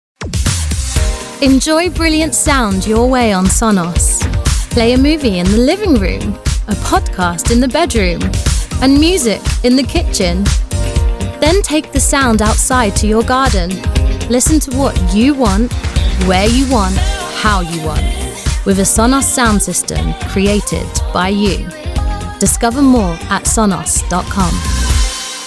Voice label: UK Music; Sonos